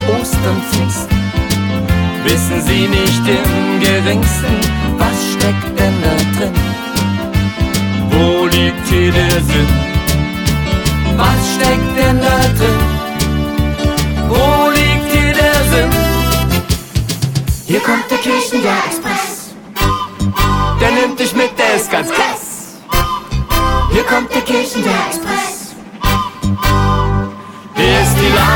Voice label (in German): hoerspiel